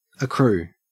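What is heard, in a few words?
"accrue", Australian English; pronunciation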